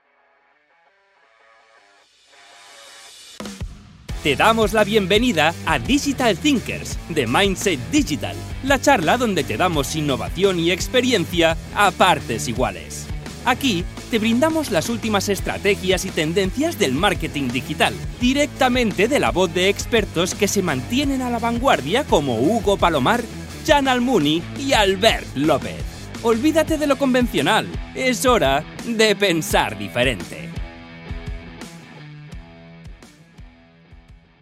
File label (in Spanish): Podcast, Energico Medio; Digital Mindset